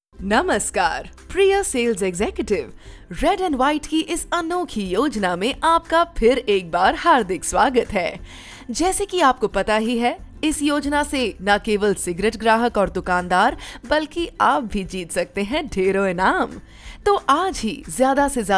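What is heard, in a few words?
Reminder voice over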